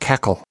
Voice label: "cackle", us